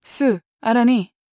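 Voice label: question short, voice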